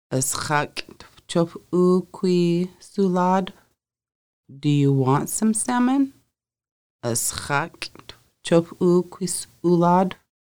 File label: do you want some salmon, conversational Lushootseed